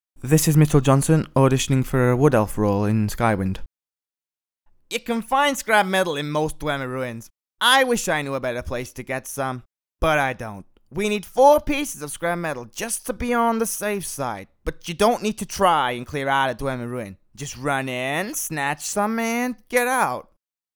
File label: cocky wood elf audition